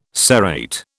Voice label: "serrate", British